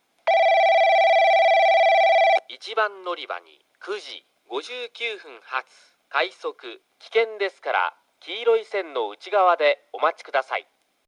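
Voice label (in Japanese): 1番のりば接近放送, 男声放送; 伊集院; 快速オーシャンライナーさつま; 列車名は放送されません。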